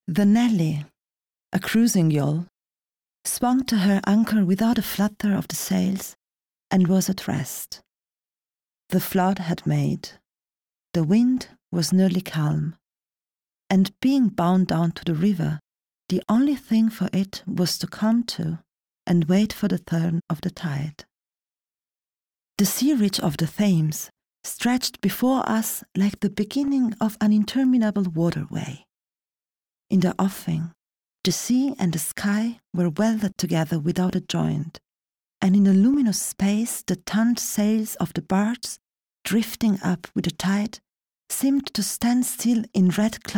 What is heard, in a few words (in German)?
englisch mit akzent